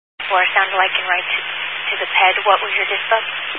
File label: Highway Patrol, Phoenix Metro West Area, scanner